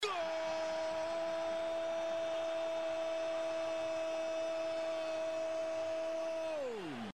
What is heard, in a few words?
arabic goaaaaaaaaal; nokia ringtone